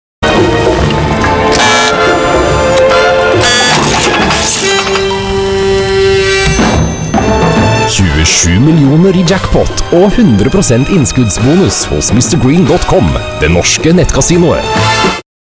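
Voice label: norwegian male commercial; voice demos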